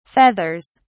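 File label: "feathers", British English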